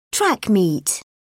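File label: "track meet", British English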